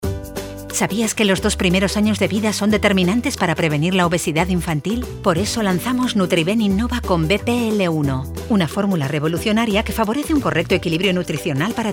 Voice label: spanish announcements

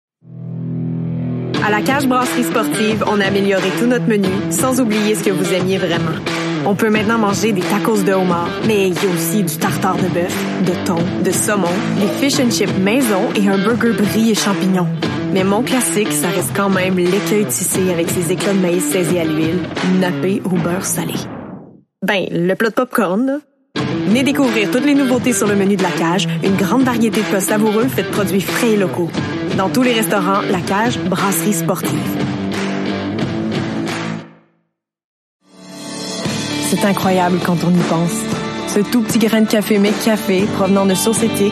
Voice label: french canadian commercial

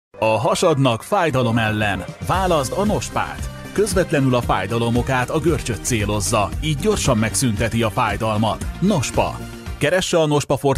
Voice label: Hungarian TV shows

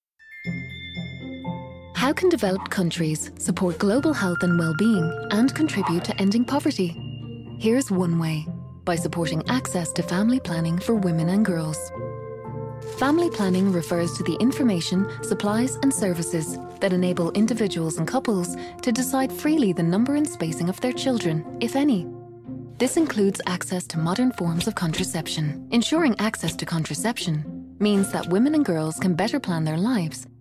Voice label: english irish corporate videos

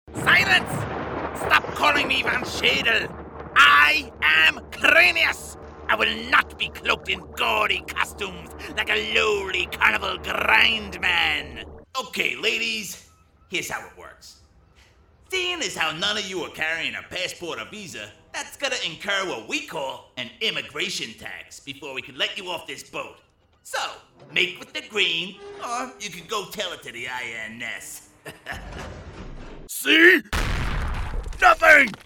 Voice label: english american video games

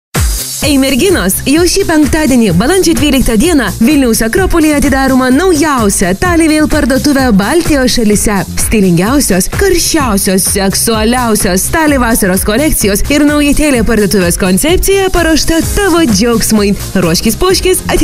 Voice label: lithuanian commercial; Litauisch; Litauen

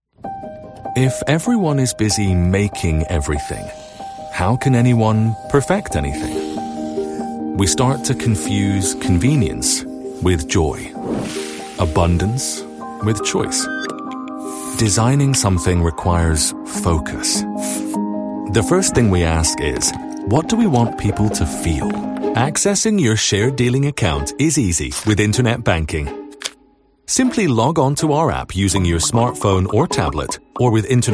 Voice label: english international corporate videos

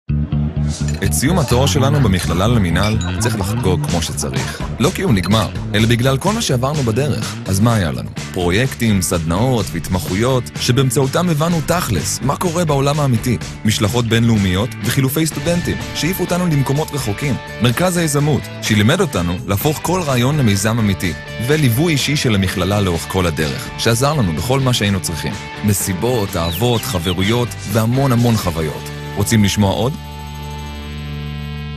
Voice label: hebrew commercial

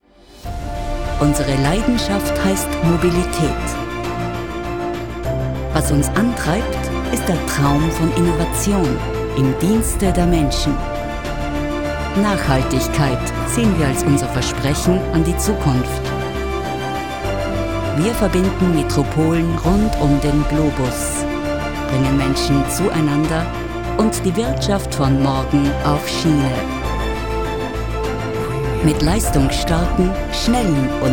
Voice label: german austrian corporate videos

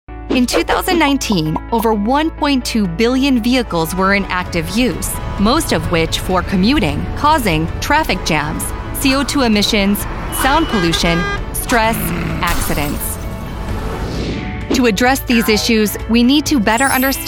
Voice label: english american narration

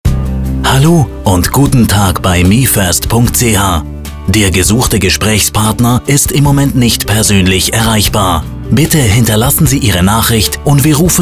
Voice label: german ivr

